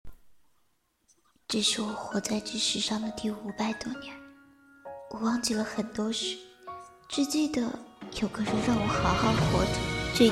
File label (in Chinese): 童话古风 哭腔; 我见不得你先走